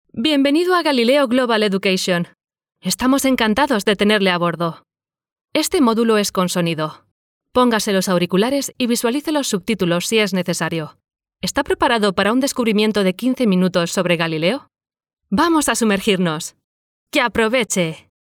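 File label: Spanish, female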